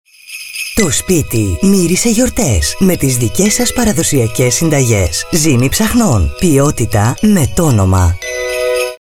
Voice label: Spot, greek female voice; Zymi Psaxnon Xmas